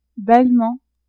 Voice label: "bellement", French; adverbs